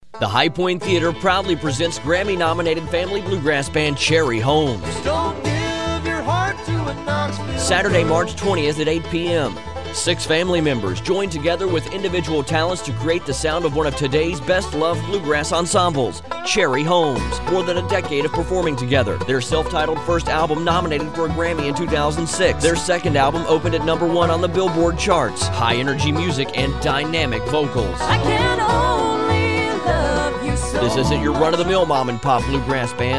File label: radio commercial concert promo